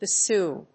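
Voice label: "bassoon", English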